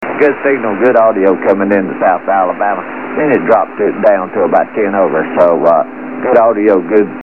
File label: delay, QSO